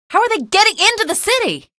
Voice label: alert antlions, female; video games; npc; source engine; episodic; episode 1